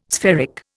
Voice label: "spheric", Indian